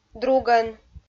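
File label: "другӧн", Komi, female; kpv; audio dict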